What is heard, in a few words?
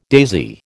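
"daisy", American English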